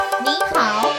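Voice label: "Nihao", Chinese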